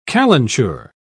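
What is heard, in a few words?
"calenture", English, British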